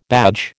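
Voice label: "badge", American English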